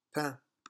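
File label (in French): "pain", French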